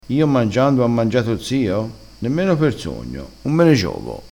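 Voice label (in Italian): "giovassi", vocabolario dialettale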